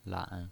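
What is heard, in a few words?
"lan", pinyin, Mandarin Chinese, third tone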